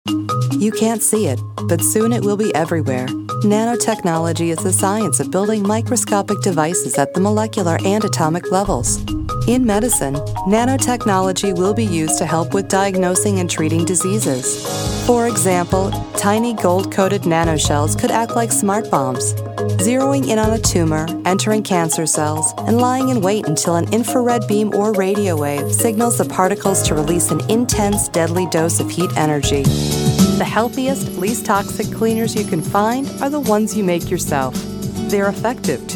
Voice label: Narrative

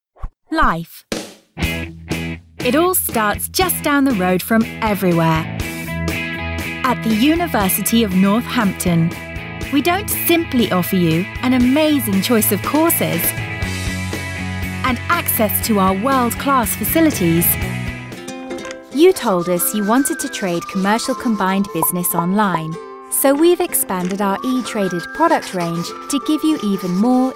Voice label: Explainer

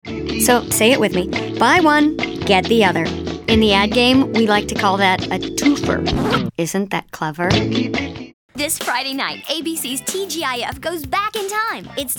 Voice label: promos

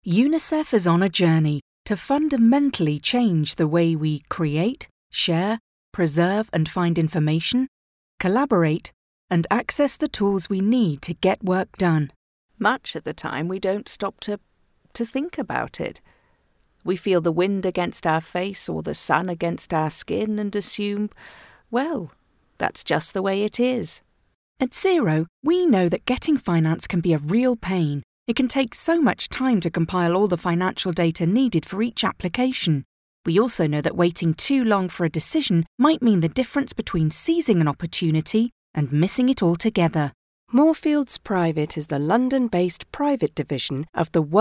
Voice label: Corporate

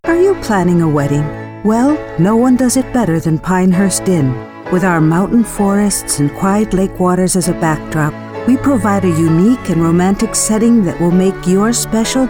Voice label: Narration

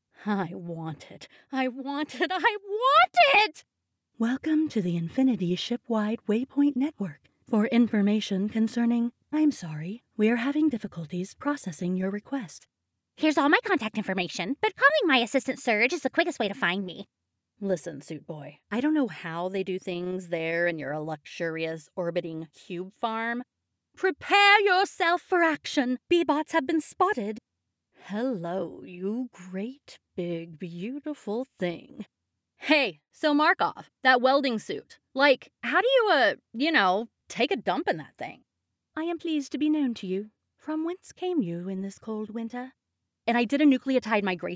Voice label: Character and Gaming

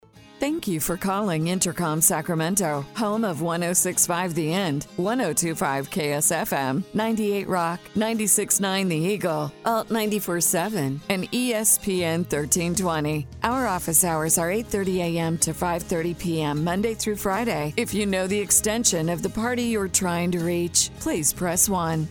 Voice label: Voice Prompt, E-Presentations

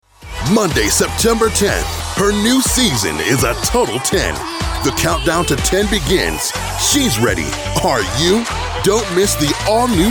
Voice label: Promo

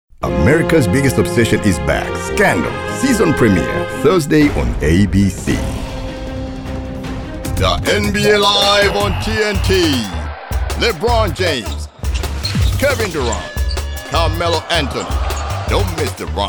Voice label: TV Station Imaging

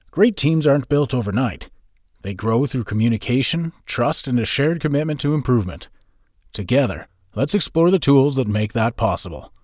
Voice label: Corp Narr

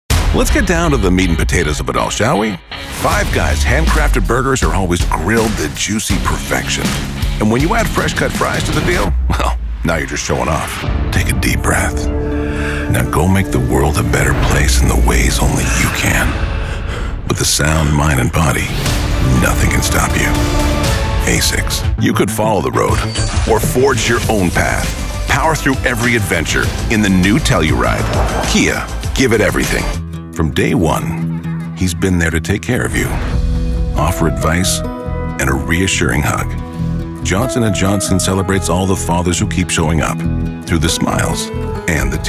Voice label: Commercials

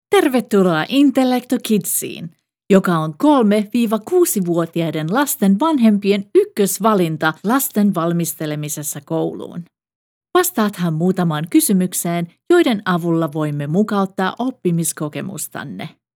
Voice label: Finnish First Phrase; Intellectokids

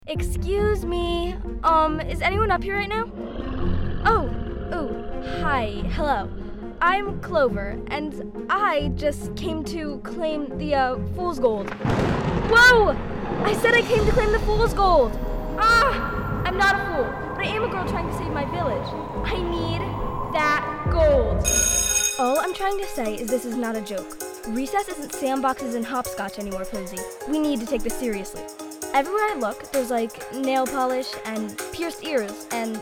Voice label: Character

